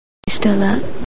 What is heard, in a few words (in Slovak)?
sms hlásky en